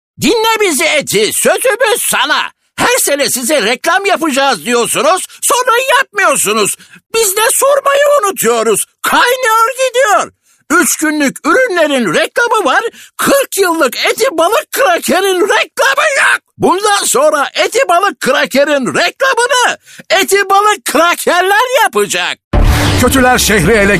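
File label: animation, seslendirme; Animasyon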